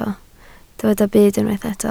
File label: "byd", Welsh